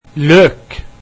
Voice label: "onions", Norwegian